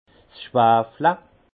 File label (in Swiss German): "sschwafle", alsace; vin; sulfitage